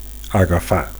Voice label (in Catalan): "agafar", Catalan; to grab; to catch; to take; to seize